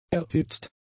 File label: "erhitzt", German; adjectives